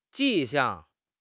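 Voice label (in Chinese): "迹象", Chinese; HSK6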